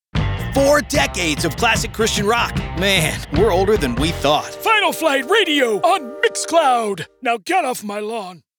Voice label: Old Man